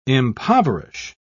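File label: "impoverish", British English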